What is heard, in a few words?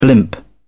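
"blimp", British English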